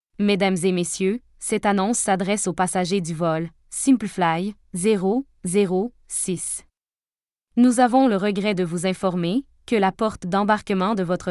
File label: gate Change, voice announcement